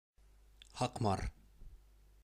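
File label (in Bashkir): "Һаҡмар", Bashkir; Сакмара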